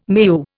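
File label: "meu", English, Indian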